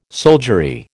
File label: "soldiery", American